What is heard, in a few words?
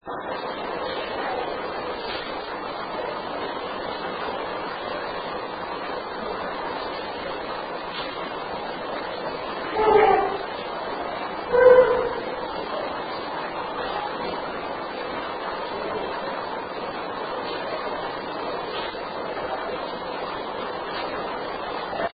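Unexplained Inaudible Childs voice; Case 020 Ballard Building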